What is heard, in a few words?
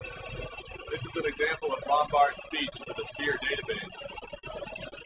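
f16 enhanced, Enhanced Speech, F16 Noise; Single Channel Noise; Lombard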